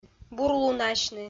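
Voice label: "бурлунасьны", Komi, female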